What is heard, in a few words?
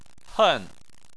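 "hen", Chinese, fourth tone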